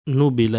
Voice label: "Nubile", Italian

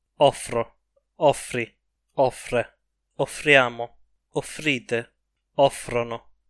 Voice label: offrire coniugazione, Italian

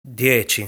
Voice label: "Dieci", Italian; lesson 2 numbers

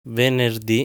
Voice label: "Venerdì", Italian

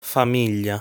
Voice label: "Famiglia", Italian

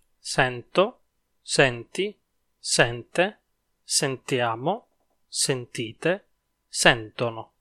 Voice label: sentire coniugazione, Italian

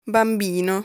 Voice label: "Bambino", Italian

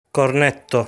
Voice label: "Cornetto", Italian

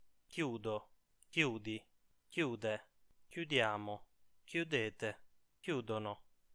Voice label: chiudere coniugazione, Italian

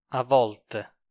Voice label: "a volte", Italian

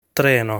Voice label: "Treno", Italian; lesson 6 articles plural

